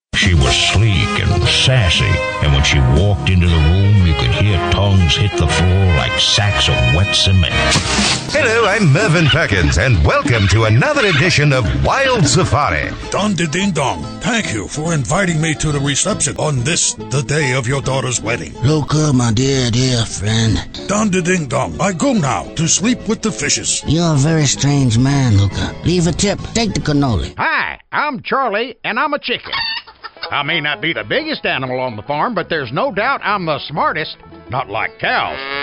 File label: character voice